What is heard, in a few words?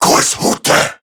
Player Spotted, Male, Battle Barks; Warframe; CorruptedGrineerMale